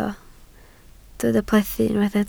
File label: "plethu", Welsh